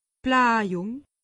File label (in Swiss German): "blahjung", alsace; medecine